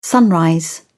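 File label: "sunrise", English, uk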